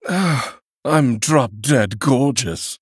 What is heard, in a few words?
Male Blood Elf Play